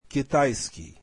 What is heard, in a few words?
Chinese, russian; китайский [kitayskiy]